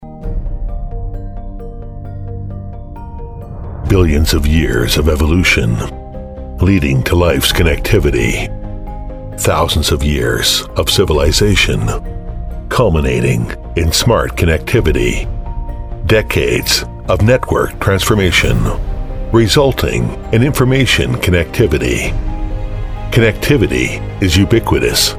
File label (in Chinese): 低沉磁性; 华为网络领导力